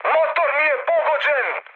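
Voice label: Serbian damaged engine mood high, speech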